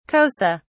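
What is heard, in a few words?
"xhosa", British English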